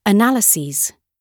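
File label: "analyses", British English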